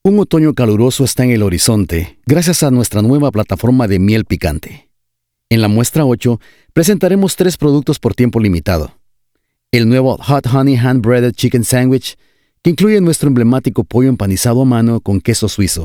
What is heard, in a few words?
E Learning, en-US